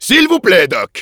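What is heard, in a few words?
Demoman activate charge, French; S'il vous plaît, Doc.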